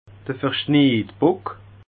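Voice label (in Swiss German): "verschniidbock", Alsatian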